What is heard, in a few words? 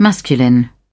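"masculine", British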